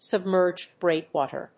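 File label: "submerged break water", English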